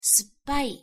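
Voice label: "suppai", Japanese pronunciation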